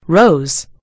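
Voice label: "rose", American English; gcompris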